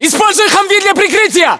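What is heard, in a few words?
order cover humvee, battlechatter, Russian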